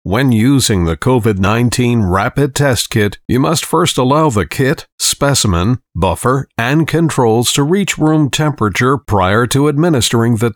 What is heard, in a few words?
Audio Book Narration